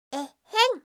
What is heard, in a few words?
"ehhen", voice